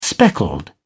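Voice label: "speckled", English, British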